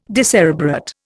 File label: "decerebrate", Indian